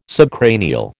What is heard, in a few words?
"subcranial", American